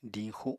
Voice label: "diñxu", Apatani